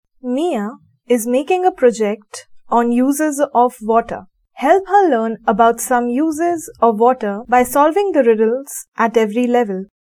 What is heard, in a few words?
dialog; Elevate LMS; EVS; SolveThis